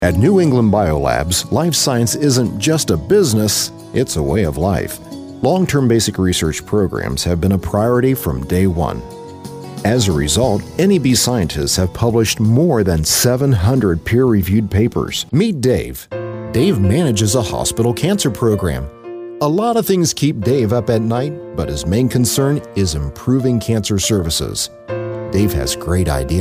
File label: COMMERCIAL